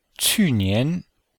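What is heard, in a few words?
"qu4nian2", Chinese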